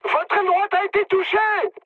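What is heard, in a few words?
right wing, mood high, French; War Thunder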